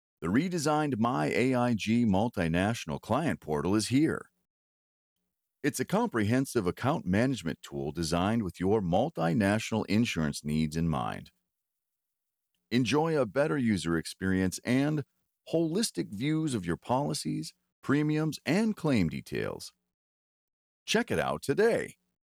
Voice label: Audition for Short Social Teaser

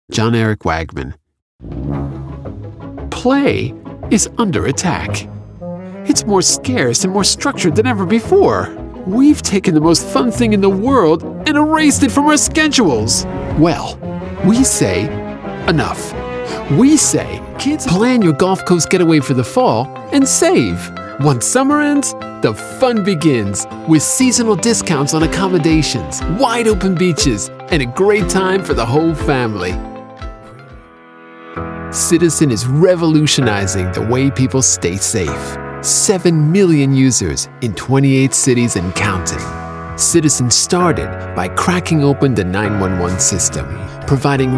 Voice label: UPBEAT

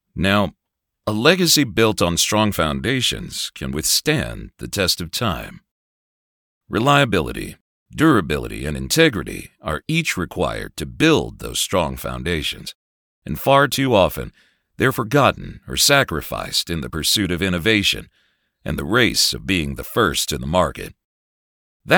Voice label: Sam Elliott like

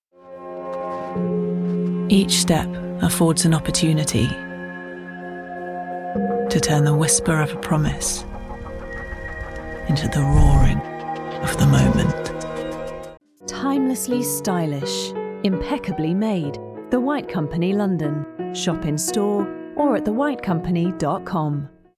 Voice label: commercial voicereel

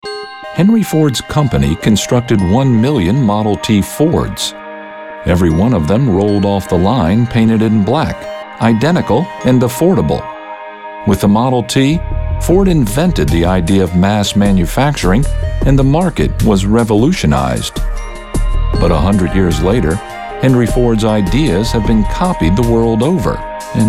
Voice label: Narrations

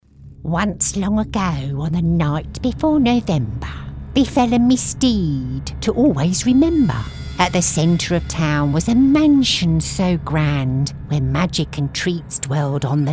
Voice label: Character

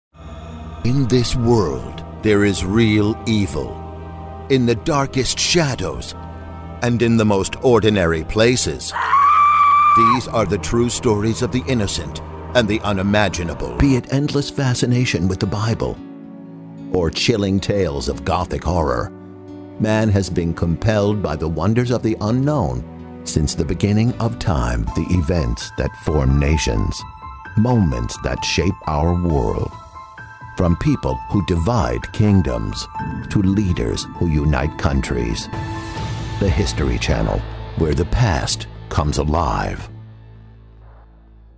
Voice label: PROMOS AND DOCUMENTARY

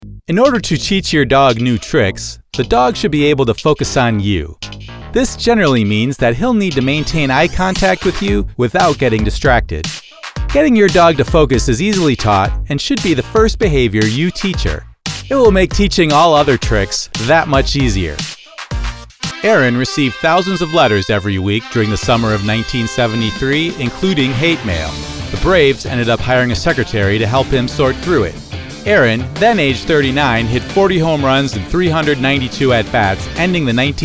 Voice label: NARRATION

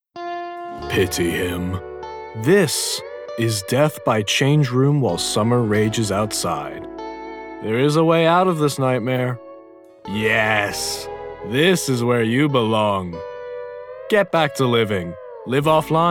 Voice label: Commercial VO

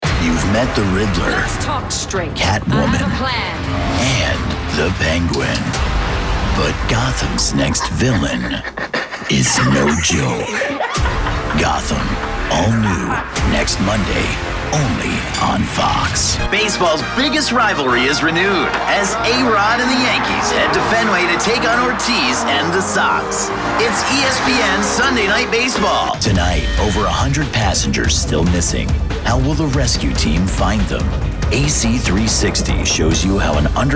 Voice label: VO Promo

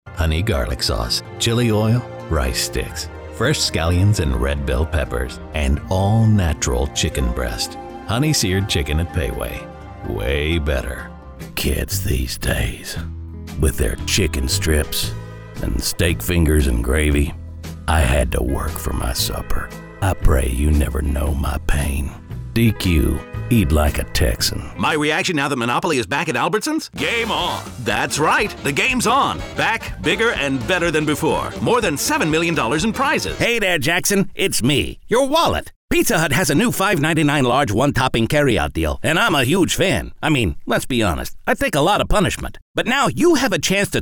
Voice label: Commercial Voiceover

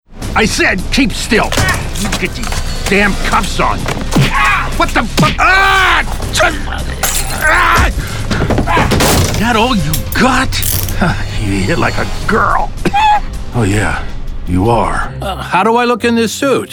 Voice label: vo character

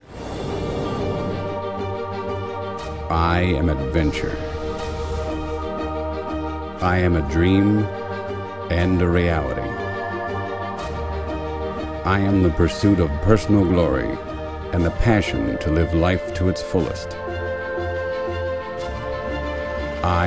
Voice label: Morgan Freeman Style

